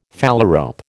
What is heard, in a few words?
"phalarope", American